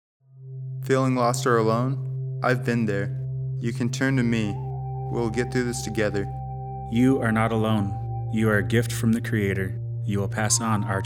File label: Radio PSA